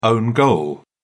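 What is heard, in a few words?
"own goal", British English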